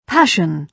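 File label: "passion", British English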